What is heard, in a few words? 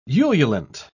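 "ululant", British English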